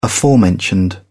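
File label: "aforementioned", British English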